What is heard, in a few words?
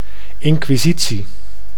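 "inquisitie", Dutch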